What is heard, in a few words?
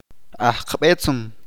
"Musician", Chalchiteko